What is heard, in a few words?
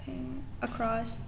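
"across", Pakistani, female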